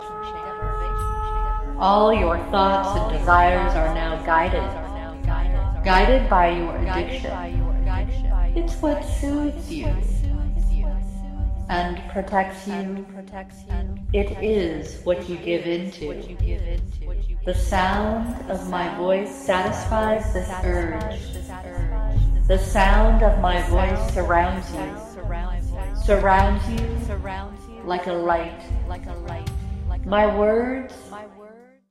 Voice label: trance induction